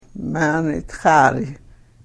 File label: karuk